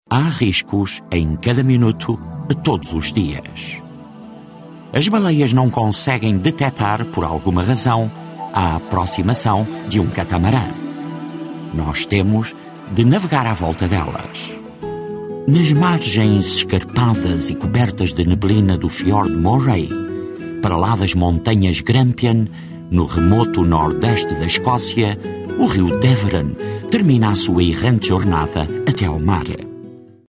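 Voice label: Portuguese; Portuguese, Male, 20s-30s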